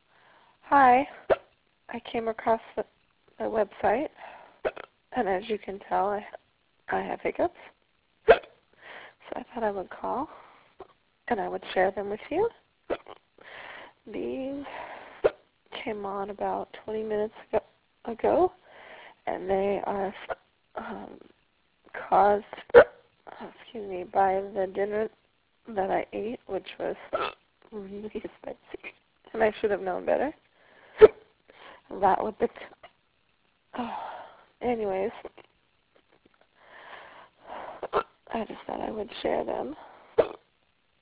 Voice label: phone msg